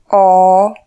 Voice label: o long, slovak

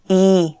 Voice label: i long, slovak

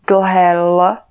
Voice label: "l" long, Slovak

